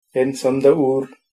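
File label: I'm from, Tamil, male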